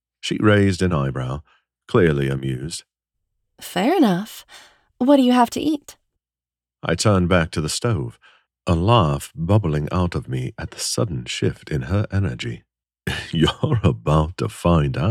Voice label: British Romance Duet